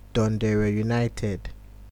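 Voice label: dundere united, Nigerian Pidgin, male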